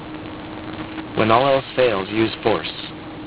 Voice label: 6bit, Speech